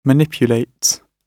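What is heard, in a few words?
"manipulates", British English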